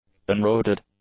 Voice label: "unroaded", english; adjectives

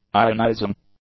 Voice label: "ionizing", english

